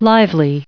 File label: "lively", en-us